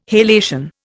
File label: "halation", Indian